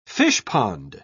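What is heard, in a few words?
"fishpond", British English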